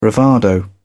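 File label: "bravado", British English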